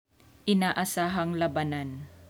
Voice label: Tagalog